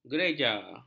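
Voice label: "gereja", Malay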